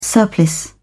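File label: "surplice", British English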